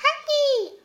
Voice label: happy, vocal; Techmino